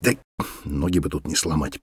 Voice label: mutter, voice; Escape from Tarkov